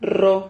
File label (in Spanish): "rro", silabas